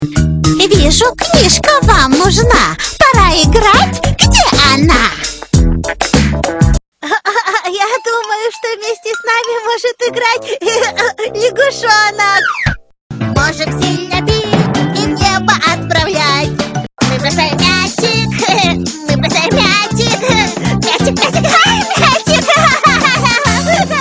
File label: russian cartoon voice; fisher price